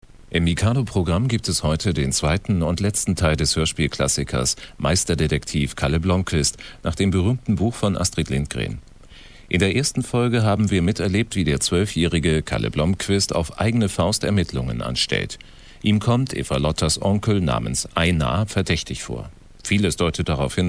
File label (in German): Ansage; Astrid Lindgren; Kalle Blomquist - Meisterdetektiv Teil 2